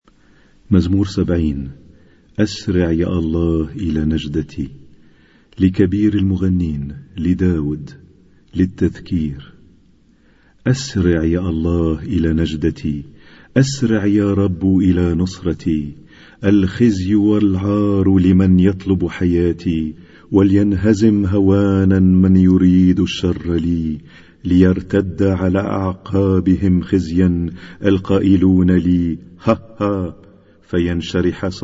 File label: Arabic Psalm Zaboor